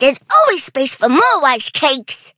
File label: victory, en; cookie run